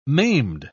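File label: "maimed", British English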